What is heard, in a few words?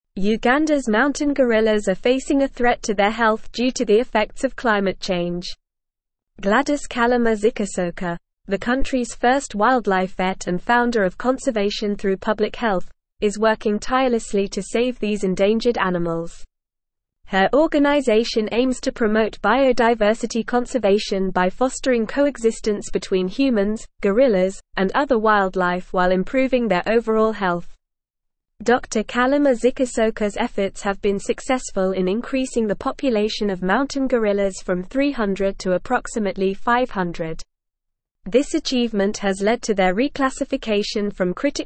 English Advanced NORMAL Reading; English Newsroom; Ugandas Wildlife Vet Saves Mountain Gorillas from Climate